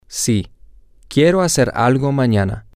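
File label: Spanish, male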